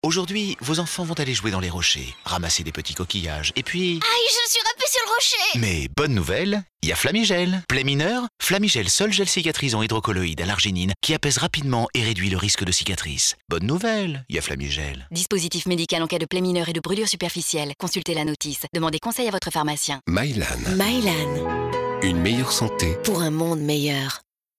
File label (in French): Spot radio; FLAMIGEL; Rochers